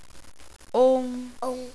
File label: "ong", phonics